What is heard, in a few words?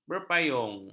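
"berpayung", Malay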